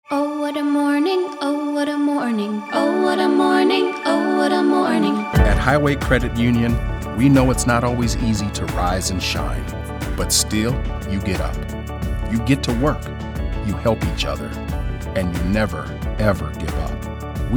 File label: radio spot